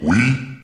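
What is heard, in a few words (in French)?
"oui", French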